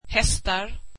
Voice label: "horses", swedish